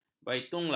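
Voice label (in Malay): "baitullah", Malay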